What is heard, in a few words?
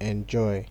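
"enjoy", Nigerian Pidgin, male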